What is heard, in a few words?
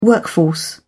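"workforce", British English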